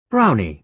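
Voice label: "brownie", British English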